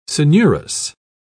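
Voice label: "coenurus", English, British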